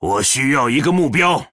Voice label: Male Troll ERROR TARGET, zh